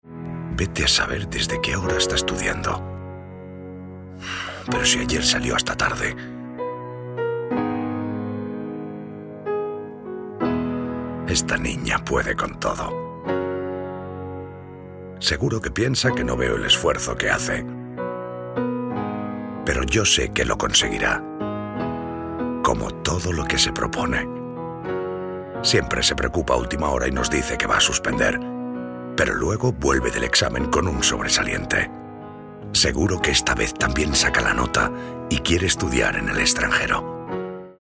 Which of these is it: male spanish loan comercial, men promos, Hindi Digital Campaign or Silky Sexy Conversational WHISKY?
male spanish loan comercial